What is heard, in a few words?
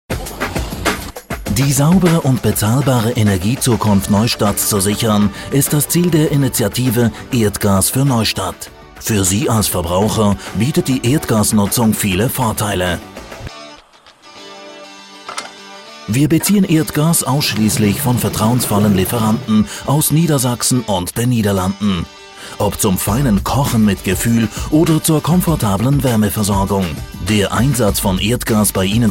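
de com corp info, German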